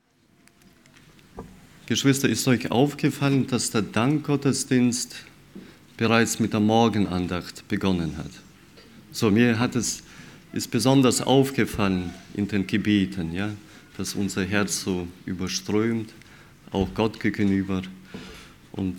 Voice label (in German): Ansage; Dankgottesdienst